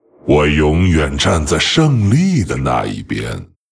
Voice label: pissed, zh

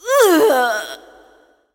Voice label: death, zh

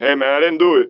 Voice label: 拉丁裔海滩中年男性, 回应警察; 罪恶都市

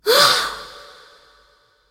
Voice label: death, zh

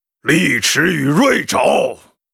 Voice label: Ogre beast master yes attack, zh

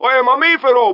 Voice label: 拉丁裔海滩中年男性; 罪恶都市; GTAVC

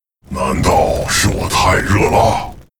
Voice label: pissed

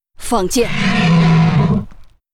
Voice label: Hippogryph with rider yes attack, zh

